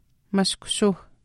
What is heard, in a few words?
"mashkushuuh", East Cree